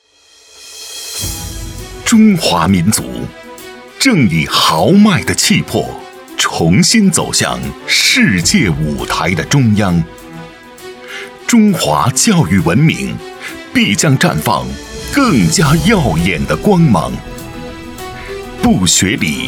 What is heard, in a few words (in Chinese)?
男, 专题; 中华民族